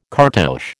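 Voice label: "cartouche", American English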